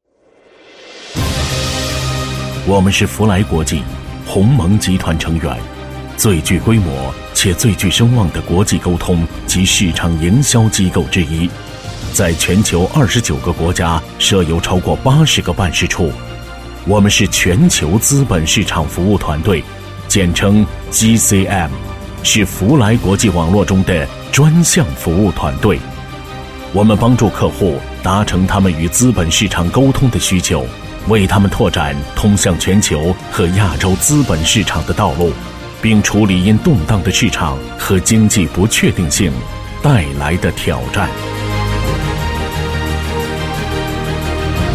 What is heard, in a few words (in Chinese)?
男 专题 自白简介; 央视大气专题; 福莱国际